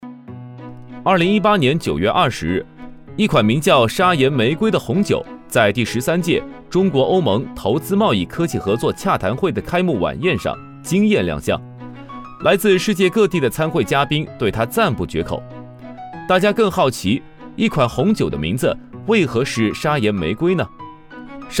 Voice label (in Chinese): 男 独白 自然轻快 短视频; 砂岩玫瑰